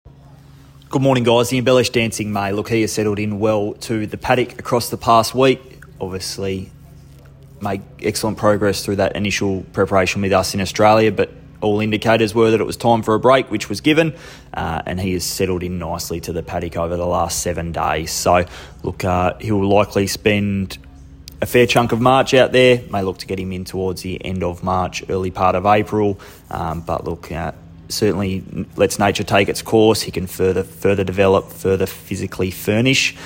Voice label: voice note; Dancing May Embellish NZ